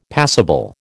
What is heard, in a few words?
"passible", American